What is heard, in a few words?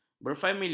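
"berfamili", Malay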